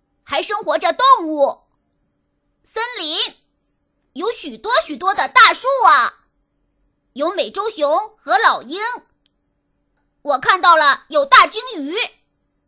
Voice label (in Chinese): 女, 童声, 活泼男孩